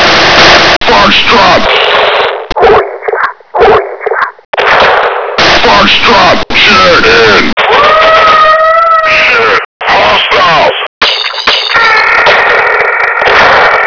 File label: radio grunt; svencoop; bluecell